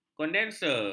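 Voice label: "kondenser", Malay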